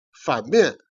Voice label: "jian", Chinese

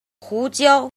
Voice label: "jin", Chinese

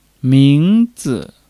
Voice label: "ming2 zi", Chinese

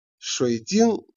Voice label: "jian", Chinese

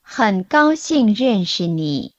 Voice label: Mandarin Conversation

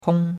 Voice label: "hong1", chinois; Cours de Chinois

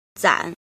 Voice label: "jin", Chinese

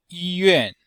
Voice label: "yi1 yuan4", Chinese

